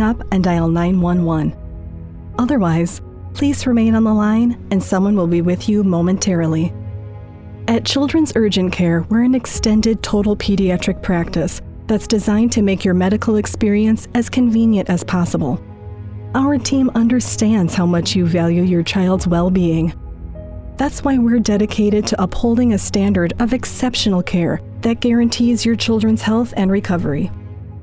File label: Telephony Childrens Clinic